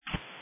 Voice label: Police Dispatch